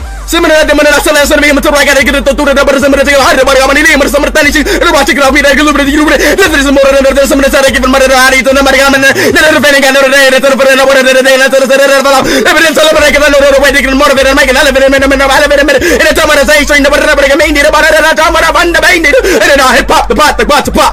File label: SPEED TALK; memes